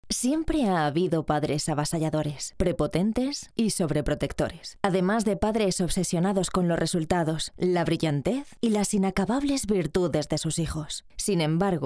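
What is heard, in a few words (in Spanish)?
generico España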